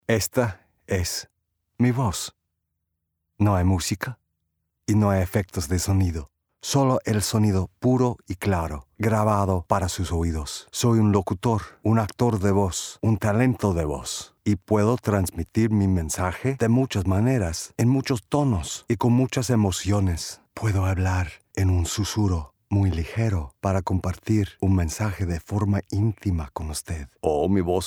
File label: Spanish